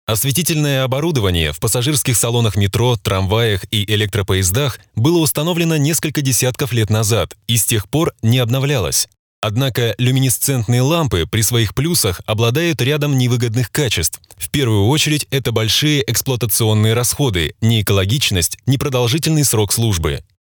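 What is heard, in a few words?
Russian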